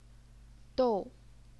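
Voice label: "dou", fourth tone, Chinese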